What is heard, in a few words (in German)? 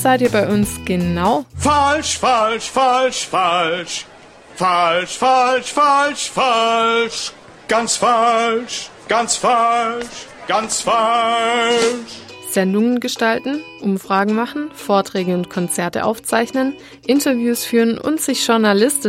radio jingle; radio sf; praktikum bei radio sf